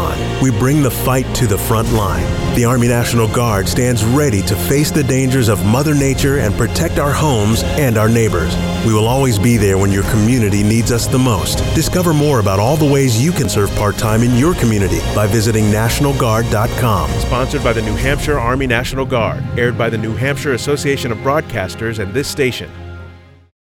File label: Fire Response Radio English